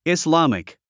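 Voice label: "Islamic", English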